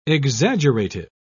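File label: "exaggerative", British English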